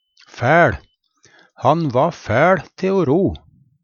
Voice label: fæL, Numedalsmål

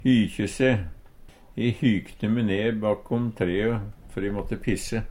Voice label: hykje se, Numedalsmål; E hykte me ne bak tree før e måtte pisse.

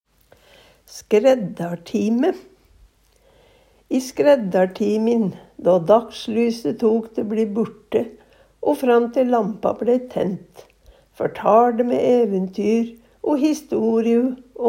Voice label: "skreddartime", Numedalsmål

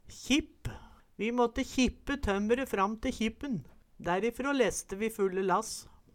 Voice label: kjipp, Numedalsmål

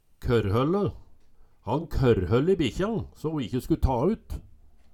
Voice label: korrholle, Numedalsmål

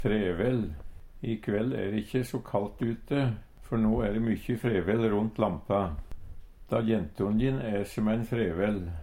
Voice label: "frevell", Numedalsmål

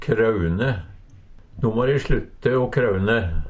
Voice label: kraune, Numedalsmål; Verb

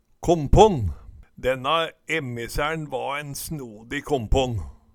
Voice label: komponn, Numedalsmål

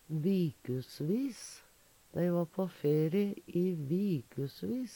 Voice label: "vikusvis", Numedalsmål; Dei va på feri i vikusvis.; Ordklasse: Determinativ (mengdeord); j.f. "døgøsvis"

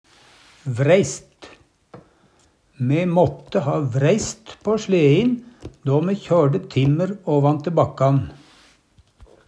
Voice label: vreist, Numedalsmål; vreiste (verb) - setja på brems på sledemeian, bremse/vreiste

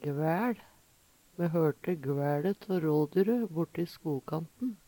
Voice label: "gvæL", Numedalsmål; Me hørte gvæLe tå rådyre borti skogkanten.

